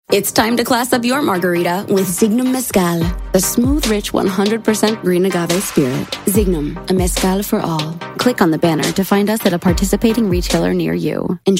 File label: hispanic accent